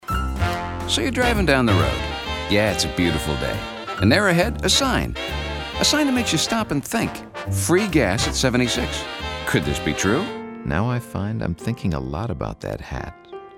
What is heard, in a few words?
Voice Samples - Friendly-Warm